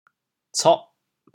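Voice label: "coh8", Teochew, chaoyang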